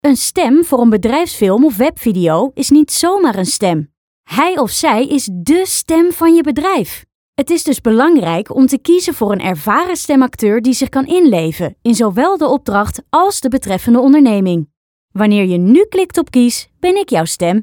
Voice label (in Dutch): bedrijfsfilm